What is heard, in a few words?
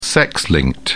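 "sex linked", British English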